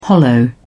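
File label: "hollow", British English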